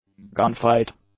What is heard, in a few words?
"gunfight", English; nouns